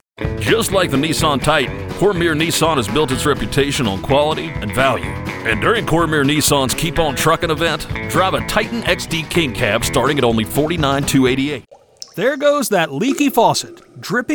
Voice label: Commercial